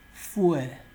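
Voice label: "fuel", Nigerian Pidgin, male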